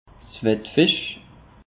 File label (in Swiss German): "wettfische", alsace; peche